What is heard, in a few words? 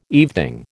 "evening", American